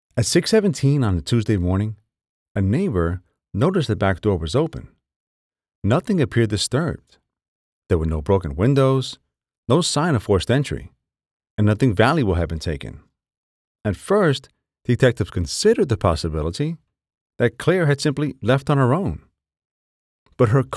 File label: True Crime Documentary